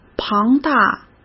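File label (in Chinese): "庞大", Mandarin Chinese; chinese flashcards; hsk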